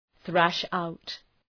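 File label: "thrash out", English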